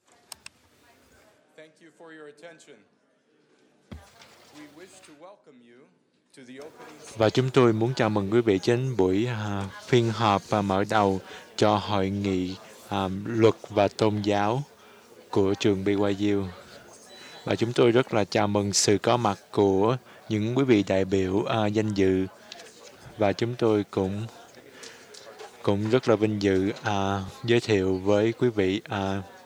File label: Vietnamiese; vietnamese; iclrs symposium 2009